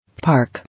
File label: "Park", English